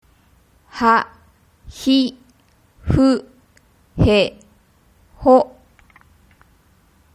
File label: hahifuheho, Japanese